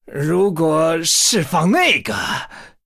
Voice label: Male Human Thinking; zh